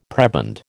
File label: "prebend", American